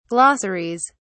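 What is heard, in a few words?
"glossaries", English, British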